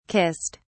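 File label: "kissed", British English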